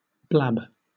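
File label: "blab", British English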